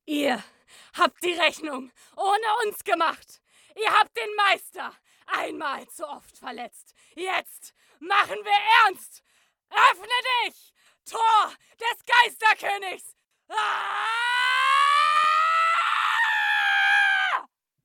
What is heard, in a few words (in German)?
Anime Kampfszene; LOFT VOICES